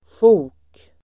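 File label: "sjok", Swedish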